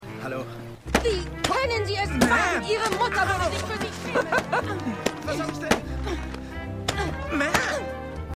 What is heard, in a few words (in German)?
Parkbankmann Frau; Susi und Strolch 2019